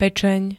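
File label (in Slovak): "pečeň", Slovak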